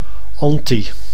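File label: "anti", Dutch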